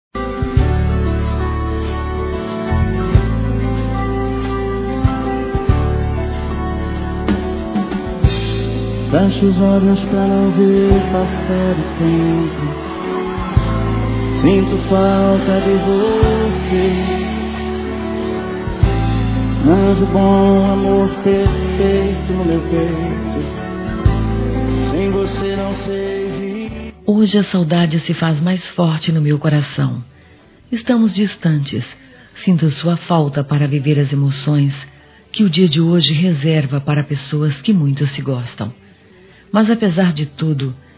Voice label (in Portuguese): Saudades Feminino; Telemensagens